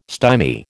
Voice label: "stymie", American